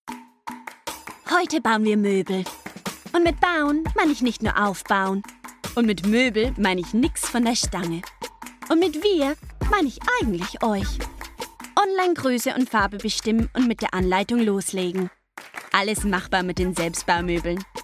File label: German